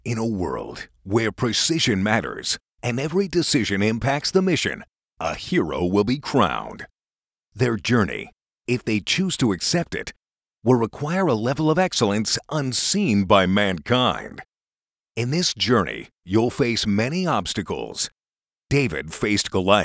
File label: Movie Trailer